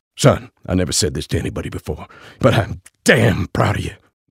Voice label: LipSync Proud